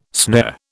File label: "snare", British